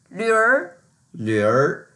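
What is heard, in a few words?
"lvr2", Mandarin Chinese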